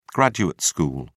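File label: "graduate school", English, British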